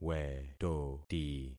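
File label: "wetoti", concatenated syllables; Affixing experiment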